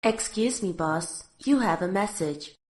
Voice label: excuse me boss you have a message notification female voice